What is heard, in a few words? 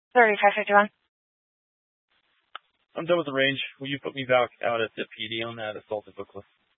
Police Department Dispatch, scanner